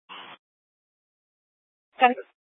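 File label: Incident Tactical 3, Scanner audio recording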